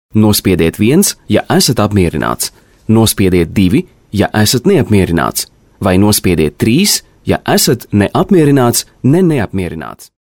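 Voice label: Latvian, male, interactive voice response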